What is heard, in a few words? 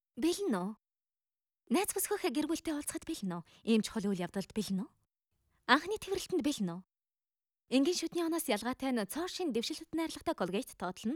Dubbing